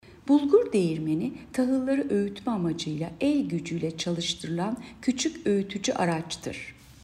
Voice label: turkce